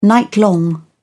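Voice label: "nightlong", English, British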